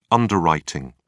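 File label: "underwriting", British English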